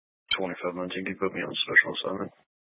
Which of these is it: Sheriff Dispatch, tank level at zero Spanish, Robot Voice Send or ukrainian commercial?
Sheriff Dispatch